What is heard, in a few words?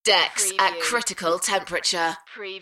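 UK female, voice drop; Decks at critical temperature; beanstalk audio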